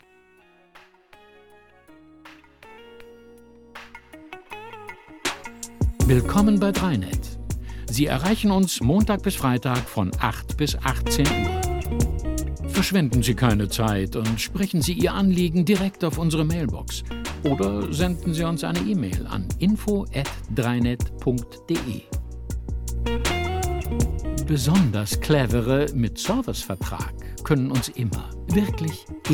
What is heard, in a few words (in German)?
Ansage Wochenende